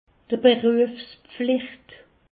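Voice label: "beruefspflicht", Haut Rhin; medecine; déontologie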